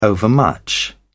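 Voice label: "overmuch", British English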